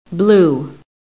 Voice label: "BLUE", american; PHONETIC